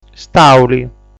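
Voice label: "Stàuli", Sardinian word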